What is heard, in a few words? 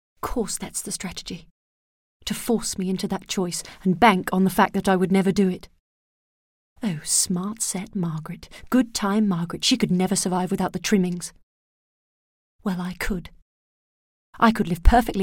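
British English, Narration; voice demos; GoLocalise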